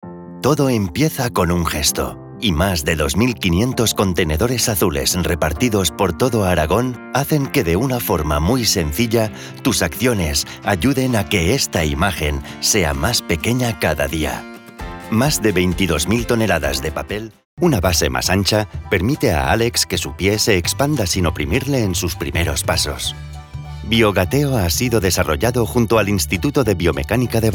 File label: Spanish from Spain